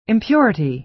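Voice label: "impurity", American English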